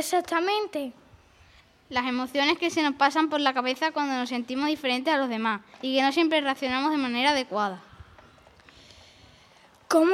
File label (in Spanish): Entrevista Inteligencia emocional; SEXTO; radiotrajano